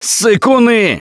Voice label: Taunt, left4dead2 russian, Survivor Voice; Gambler

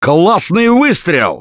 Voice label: Nice Shot, left4dead2 russian, Survivor Voice; Gambler

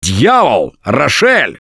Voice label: nice shot, left4dead2 russian, Survivor Voice; producer; Gambler

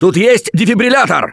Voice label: defibrillator, left4dead2 russian, Survivor Voice

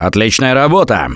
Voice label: Nice Job, left4dead2 russian, Survivor Voice

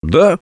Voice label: Yes, left4dead2 russian, Survivor Voice; Gambler

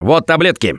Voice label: Spot Pills, left4dead2 russian, Survivor Voice; Gambler

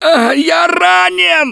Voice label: Incapacitated Initial, left4dead2 russian, Survivor Voice; Gambler

